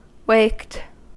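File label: "waked", American English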